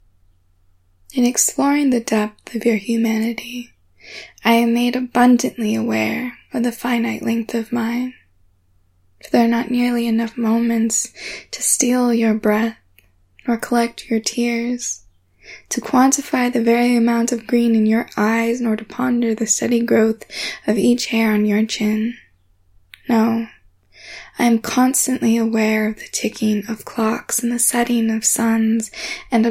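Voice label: [F] [Poem][Love], female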